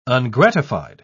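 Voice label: "ungratified", British English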